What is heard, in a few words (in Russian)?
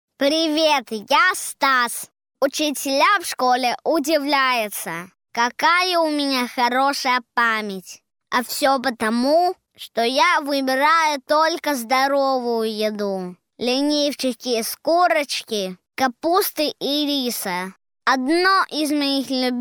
reklama